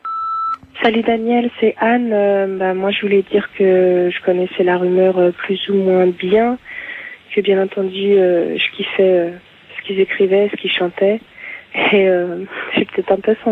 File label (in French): repondeur